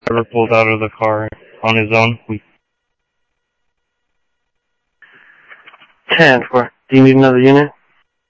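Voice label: Sheriff East County, scanner audio trunk; ThompsonPeakScot; MCSO - EAST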